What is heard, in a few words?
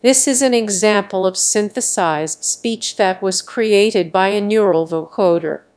HiFi GAN speech sample; Larynx